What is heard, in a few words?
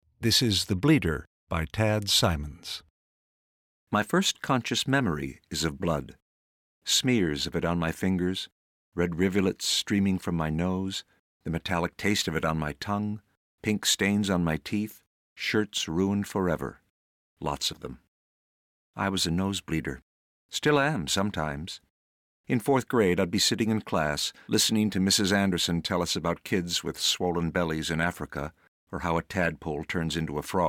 Serious fiction